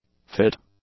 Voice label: "fit", english; adjectives

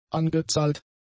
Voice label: "angezahlt", German; adjectives

